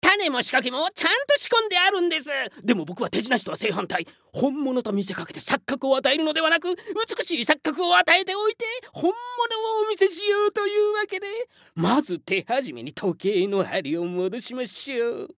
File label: male voice; セリフ